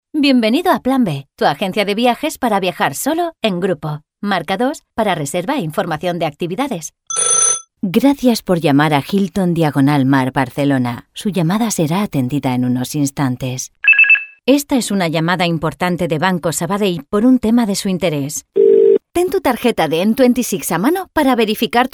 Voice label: spanish ivr

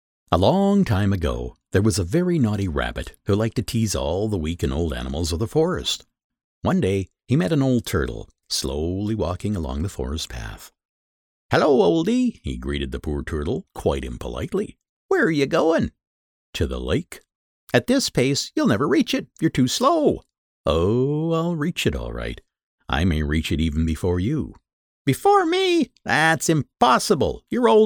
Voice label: english american narration

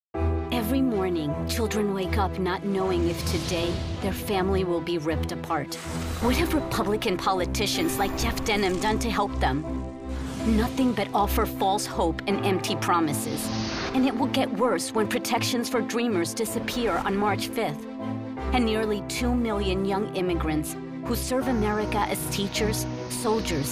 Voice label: English political ads; Atriz de dublagem mexicana-americana

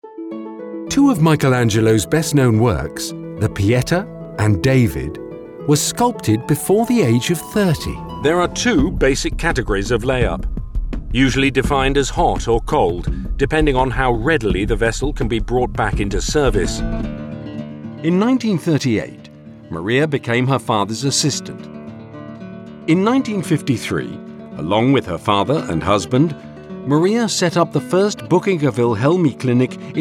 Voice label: english british documentaries

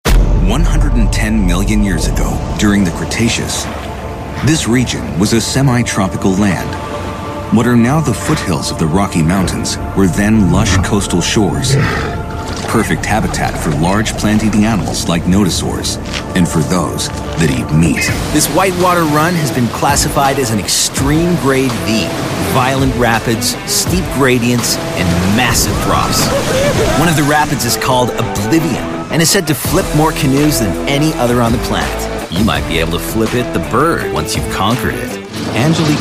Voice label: english american documentaries

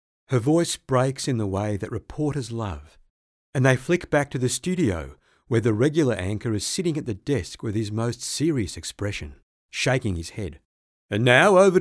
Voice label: english australian narration

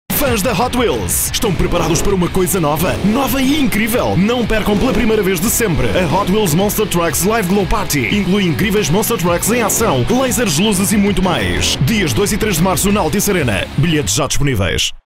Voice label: portuguese others

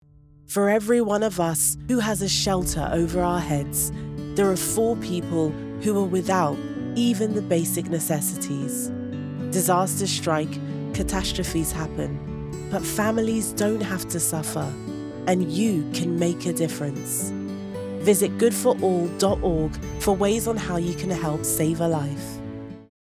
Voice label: english british promos; voice actors

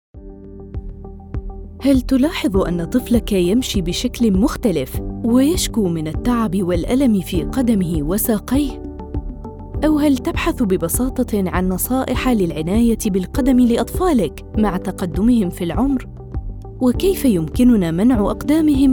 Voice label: arabic corporate videos

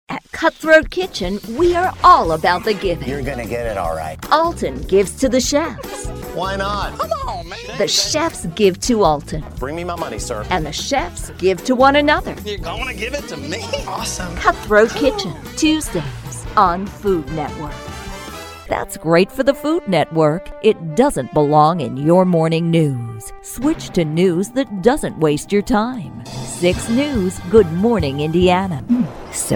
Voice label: english american tv shows

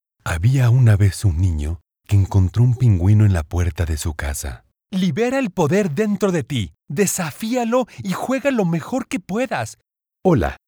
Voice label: spanish latin american neutral commercial

